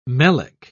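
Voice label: "melic", British English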